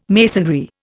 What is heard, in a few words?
"masonry", Indian